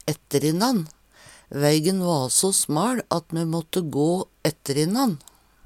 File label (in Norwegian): etterinann, Numedalsmål